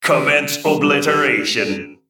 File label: Male Mech Attack